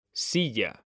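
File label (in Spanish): "silla", male; mekanta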